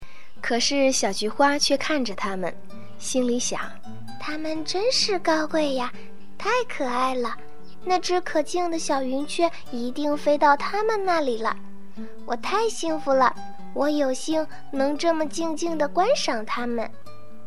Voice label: 童话节选; 安徒生童话

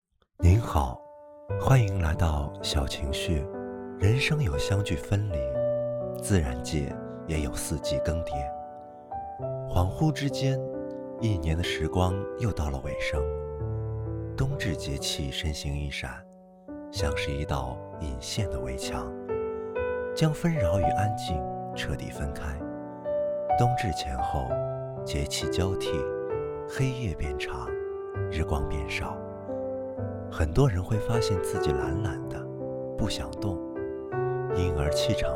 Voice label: 冥想 男播

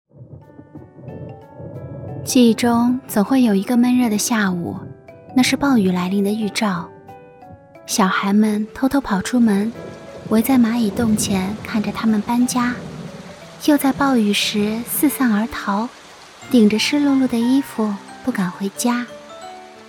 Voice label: 情感 电台

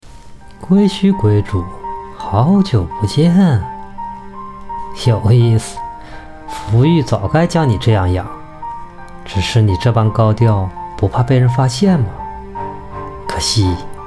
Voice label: 反派带点压迫感的清朗公子音

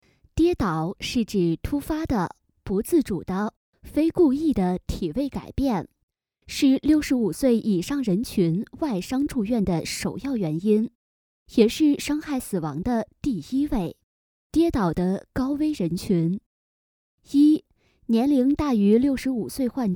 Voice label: 宣传医院

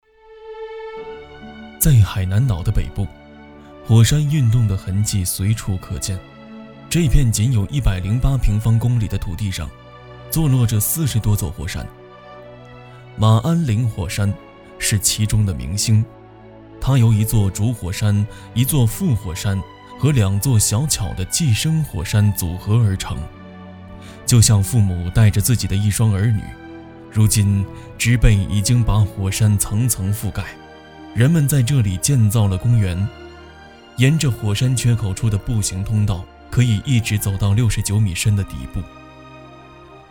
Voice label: 宣传

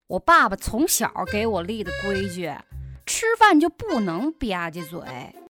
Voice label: 天津方言

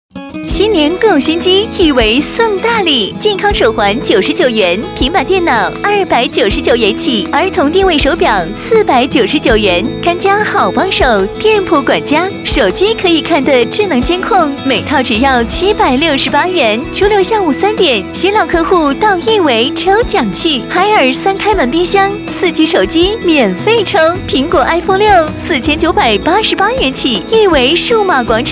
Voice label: 欢快甜美; 亿维数码

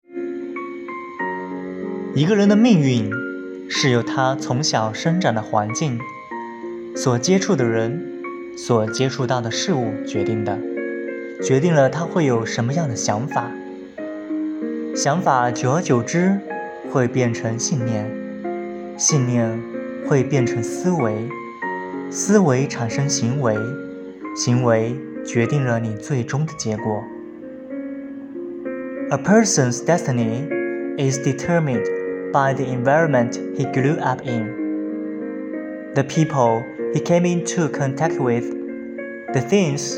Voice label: 中英文朗读; 一个人的命运是由决定的

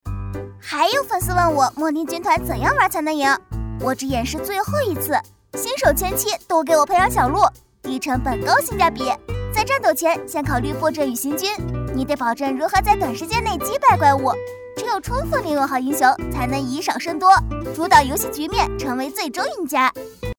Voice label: 游戏信息流甜美可爱

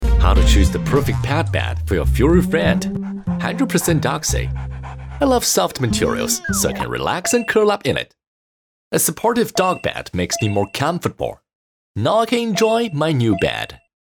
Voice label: 宠物英文成品片段样片

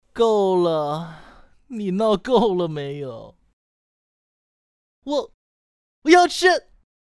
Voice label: 16岁少年

